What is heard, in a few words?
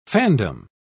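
"fandom", British English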